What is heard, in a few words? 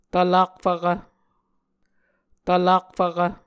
"tvlak fvkv", Muscogee